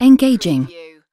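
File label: "engaging", British female; lynne publishing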